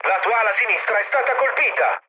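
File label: Italian left wing mood low, speech; War Thunder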